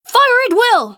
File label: FEMALE FIRE; combatarms